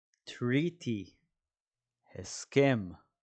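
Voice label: "treaty" English, "הסכם" Hebrew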